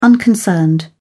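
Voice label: "unconcerned", British English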